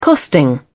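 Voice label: "costing", British English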